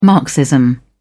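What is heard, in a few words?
"marxism", British English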